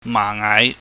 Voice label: "mahngaih", Cantonese